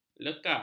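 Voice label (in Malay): "leka", Malay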